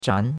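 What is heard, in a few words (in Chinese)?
"zhan2" Chinese; Cours de Chinois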